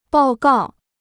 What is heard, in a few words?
"报告", Chinese